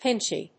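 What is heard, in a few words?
"pinchy", English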